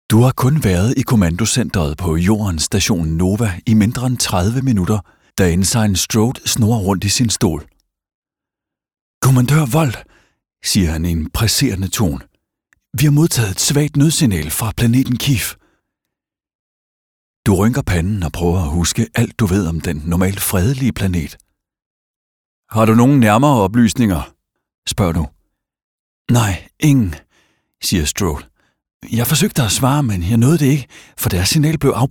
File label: interactive audiobook; Space Rescue